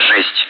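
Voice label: Speech, Russian, air traffic control; Digits; DCS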